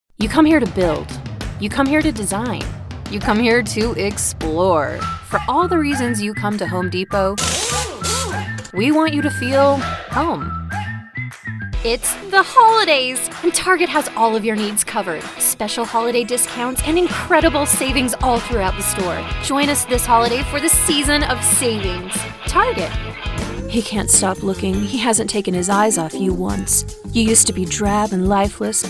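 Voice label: Commercial, voice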